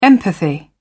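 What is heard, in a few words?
"empathy", British English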